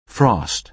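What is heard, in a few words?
"frost", American English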